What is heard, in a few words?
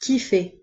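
"kiffer", French pronunciation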